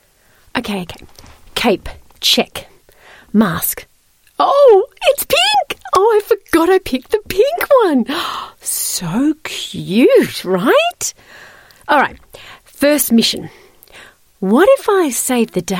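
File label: Australian English Animations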